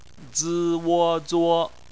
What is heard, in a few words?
"zuo", chinese